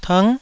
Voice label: "tng9", Taiwanese